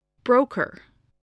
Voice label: "broker", spelling